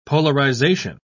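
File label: "polarisation", British English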